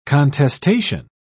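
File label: "contestation", British English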